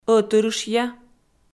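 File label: "ӧтырышъя", Komi, female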